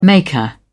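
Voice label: "maker", British English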